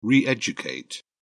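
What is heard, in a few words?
"re educate", British English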